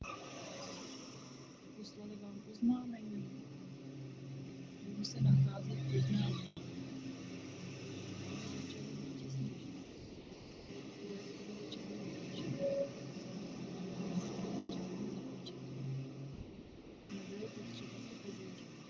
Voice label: Road Noise Czech Female Hands free; STF 294 Database (EG-ES 202 396); AMR-WB; 150ms Delay - 10ms Jitter - 1% Loss